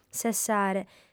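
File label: "sessare", female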